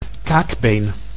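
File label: "kaakbeen", Dutch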